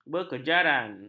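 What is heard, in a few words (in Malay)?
"berkejaran", Malay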